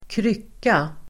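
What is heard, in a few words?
"krycka", Swedish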